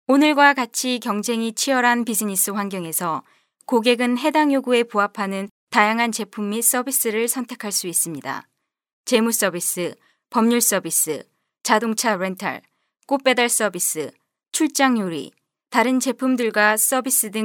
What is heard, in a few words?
Korean FVO